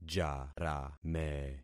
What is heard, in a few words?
"jarame", concat syllables; Affixing experiment